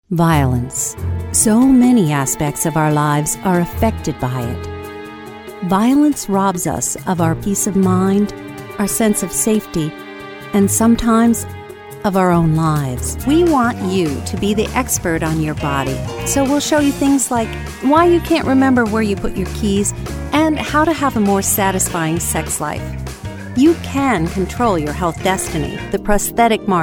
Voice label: Narration